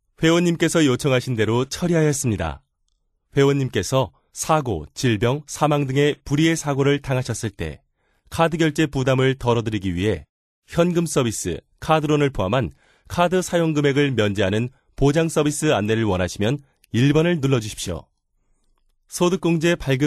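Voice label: Korean, male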